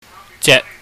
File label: "jek", chinese